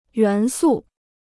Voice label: "元素", Chinese